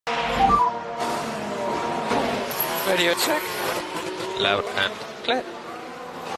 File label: voice crack; f1 shorts; Max verstappen